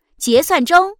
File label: result, girl